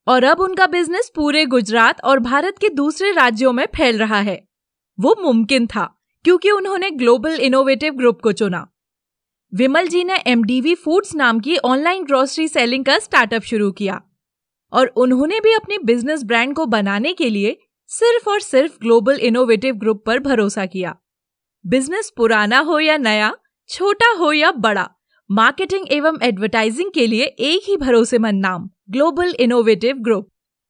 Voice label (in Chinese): 印度语女 外语 小语种